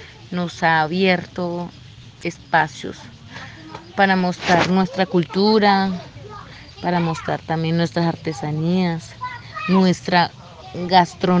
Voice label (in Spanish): mujer embera katio; alcaldia de pereira conmemora a las mujeres indigenas; Día Internacional de la Mujer Indígena